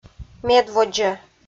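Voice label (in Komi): "медводзӧ", Komi-Zyrian, female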